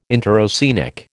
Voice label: "interoceanic", American

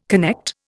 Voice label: "connect", Indian

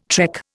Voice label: "trek", Indian

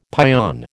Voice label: "pion", American

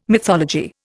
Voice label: "mythology", Indian

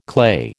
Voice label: "clay", American

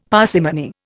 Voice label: "parsimony", Indian; Dictionary

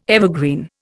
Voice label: "evergreen", Indian

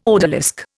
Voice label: "odalisque", Indian

